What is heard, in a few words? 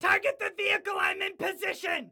Target Vehicle, Excited Guy